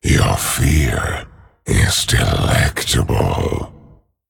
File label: Male Dragon Greetings